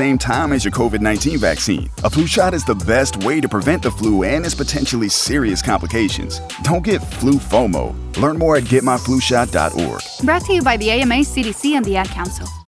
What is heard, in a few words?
Flu Season Phone Call; AdCouncil